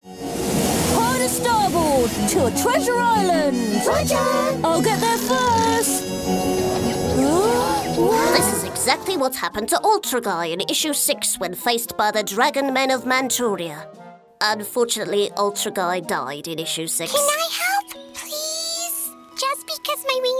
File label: Child voices F Characters